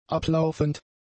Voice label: "ablaufend", German; adjectives